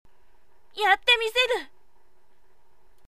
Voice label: attack, voice, woman